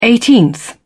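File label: "eighteenth", British English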